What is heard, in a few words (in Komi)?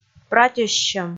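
"пратьӧссьӧм" Komi, female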